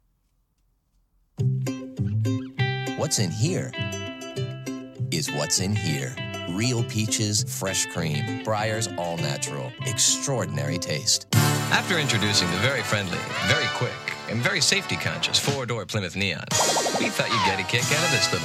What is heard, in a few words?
American, familiar, warm and engaging